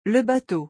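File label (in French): "le bateau", French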